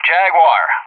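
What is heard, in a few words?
"Jaguar", English; JTAC; Callsign; DCS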